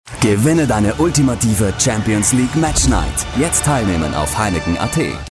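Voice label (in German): Austrian, advert, Dynamisch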